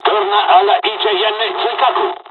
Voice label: evac, dialogs italian; War Thunder

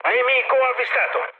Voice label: enemy detected, mood med, speech it; War Thunder

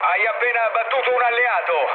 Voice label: player killed ally, mood low, speech it

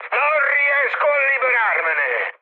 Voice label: aircraft damaged, mood high, speech it

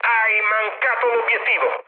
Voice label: bomb failure, mood med, speech it; War Thunder

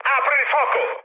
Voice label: attack position, mood high, speech it

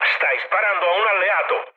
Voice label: player friendly fire, mood low, speech it

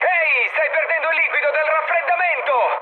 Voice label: damaged water, mood high, speech it; War Thunder

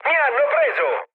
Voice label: aircraft destroyed, mood med, speech it

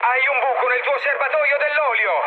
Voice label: damaged oil, mood med, speech it; War Thunder

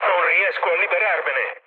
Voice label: aircraft damaged, mood low, speech it; War Thunder

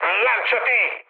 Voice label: bailout, mood med, speech it; War Thunder

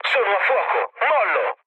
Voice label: on fire, mood low, speech it; War Thunder

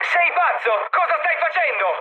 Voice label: player killed ally, mood med, speech it

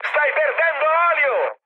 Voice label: damaged oil, mood high, speech it; War Thunder

